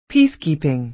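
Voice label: "peacekeeping", American English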